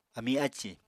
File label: "ami ácì", Apatani